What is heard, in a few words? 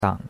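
"dang3", Chinese; Cours-de-Chinois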